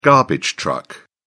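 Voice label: "garbage truck", British English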